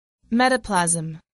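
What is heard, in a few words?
"metaplasm", English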